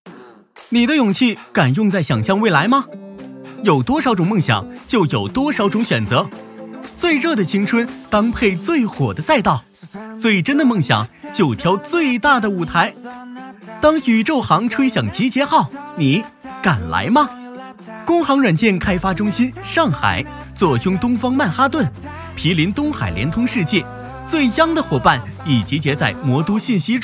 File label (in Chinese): 产品介绍年轻活力